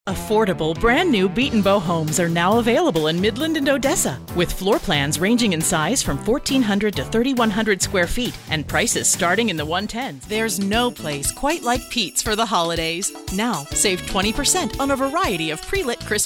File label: General, commercial